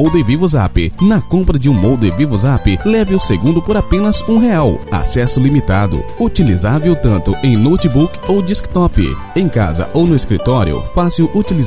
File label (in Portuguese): locutor natal; cardoso informatica